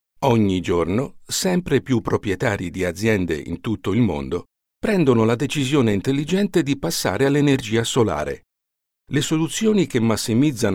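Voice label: Italian, Corporate; GoLocalise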